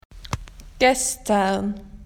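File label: "yesterday", Australian English; PictureDictionary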